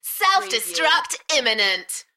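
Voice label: UK female, Enthusiastic, DJ vocals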